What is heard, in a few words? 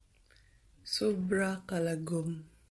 Cebuano